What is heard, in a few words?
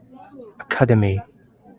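"academy", Japanese, male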